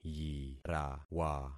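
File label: "yirawa", concatenated syllables; Affixing experiment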